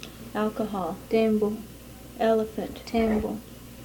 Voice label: Swahili t th; In this sound file, there are two words. The first word, 'alcohol', is transcribed as [tɛ́mbo] and represents the /t/ sound.

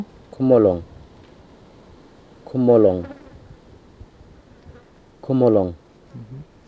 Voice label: "kumulung", Murrinh-Patha; kumuluŋ; Nasals

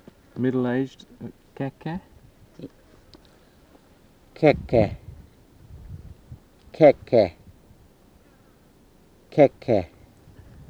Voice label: "keke", Murrinh-Patha; kɛkɛ

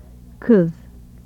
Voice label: ɯ Turkish sound file example

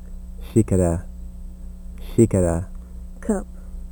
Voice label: :ʃ:, Portuguese; The voiceless postalveolar fricative highlighted in the word xicara which means 'cup'.

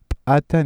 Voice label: ejective, Cusco Quechua; p’ata

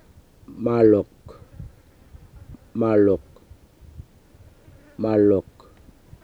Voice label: "marluk", Murrinh-Patha; mɑluk

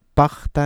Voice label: "paqta", Cusco Quechua; ['paχ.ta] /paqta; ‘with care or caution

